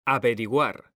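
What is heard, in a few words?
"averiguar", Spanish, male; mekanta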